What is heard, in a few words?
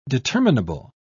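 "determinable", British English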